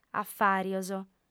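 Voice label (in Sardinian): "afàrios", lingua sarda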